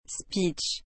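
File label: "speech", French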